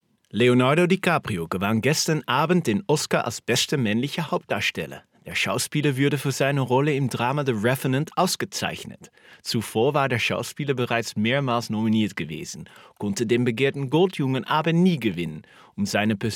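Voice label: Dutch, male, explainer; voice over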